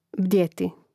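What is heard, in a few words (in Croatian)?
"bdjeti", Hrvatski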